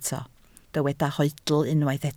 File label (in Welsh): "hoedl", Welsh